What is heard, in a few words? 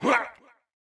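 soldier voice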